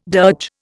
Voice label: "dirge", Indian